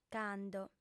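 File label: "cando", female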